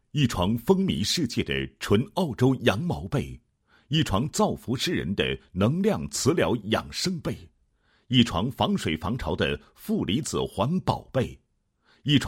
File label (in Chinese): 男 产品介绍; 澳洲羊毛磁疗养生被介绍